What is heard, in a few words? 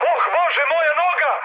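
Serbian damaged pilot mood high, speech; War Thunder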